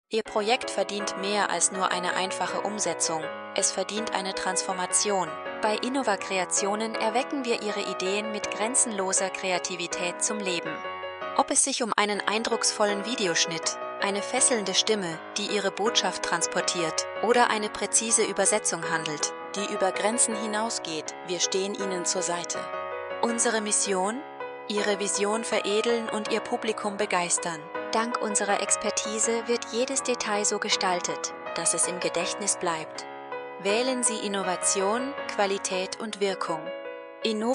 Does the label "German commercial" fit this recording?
Yes